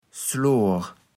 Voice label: "Ssloor", platt

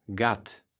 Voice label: "Gatt", Platt

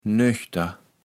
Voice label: "nöchter", kaevels platt

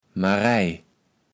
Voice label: "Marij", kaevels platt

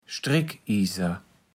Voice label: "Strickieser", platt

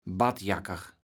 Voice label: "Badjakker", kaevels platt

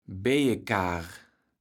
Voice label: "Bejekaar", kaevels platt

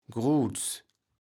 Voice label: "groets", kaevels platt

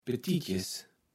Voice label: "petitjes", kaevels platt

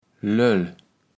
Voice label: "Löll", kaevels platt

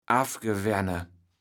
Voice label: "affgewenne", kaevels platt

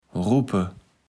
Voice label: "Ruppe", Platt

